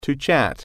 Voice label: chat